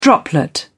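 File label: "droplet", British English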